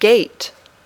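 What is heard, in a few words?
"gate", English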